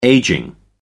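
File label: "ageing", English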